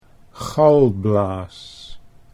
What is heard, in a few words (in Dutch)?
"galblaas", Dutch